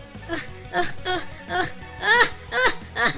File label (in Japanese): ダメージボイス; Undertale